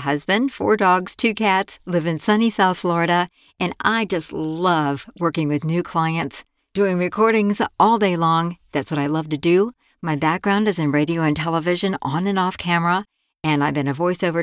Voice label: studio sample